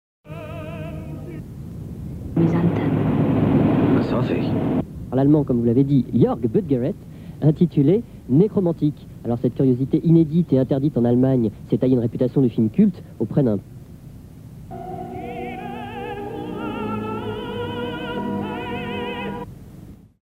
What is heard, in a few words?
french dialogue radio zapping; le nekromantique